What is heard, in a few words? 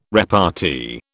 "repartee", British